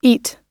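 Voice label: "eat", American English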